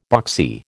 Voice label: "boxy", American